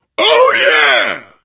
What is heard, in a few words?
taunt, biker